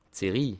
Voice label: "serie", alsace

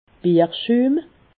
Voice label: "bierschum", alsace

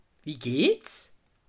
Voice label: "wie gehts", alsace

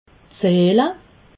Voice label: "zehle", alsace

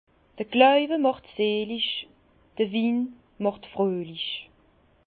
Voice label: "glauwe", Bas Rhin; la foi rend bienheureux le vin rend heureux

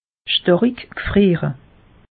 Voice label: "starik gfriere", alsace; meteo

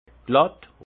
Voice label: "glatt", alsace; vin; plat

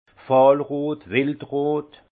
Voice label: "fahlrot", alsace; vin; fauve

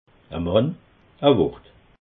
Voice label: "mann", alsace

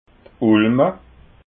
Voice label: "ulma", alsace

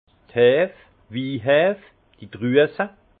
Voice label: "hef", alsace; vin

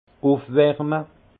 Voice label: "ufwarme", alsace; vin; réchauffer

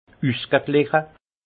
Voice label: "usgegliche", alsace; vin; équilibré

